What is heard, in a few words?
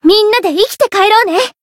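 Voice variable chant, Japanese; orleya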